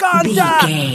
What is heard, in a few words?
voice male soldier contact